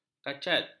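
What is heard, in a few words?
"kacat", Malay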